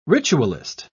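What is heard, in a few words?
"ritualist", British English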